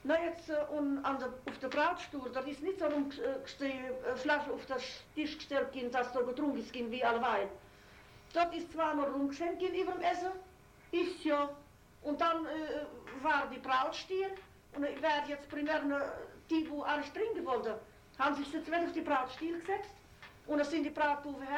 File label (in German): pfaelzisch